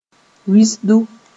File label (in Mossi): "wisdu", Moore